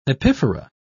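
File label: "epiphora", British English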